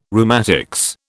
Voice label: "rheumatics", British

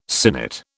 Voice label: "sinnet", British

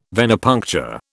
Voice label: "Venepuncture", British

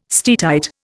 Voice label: "steatite", Indian

